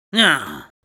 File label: Spy negative vocalization, es; "¡Ñeah!"